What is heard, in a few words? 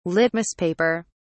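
"litmus paper", British English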